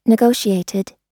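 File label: "negotiated", American English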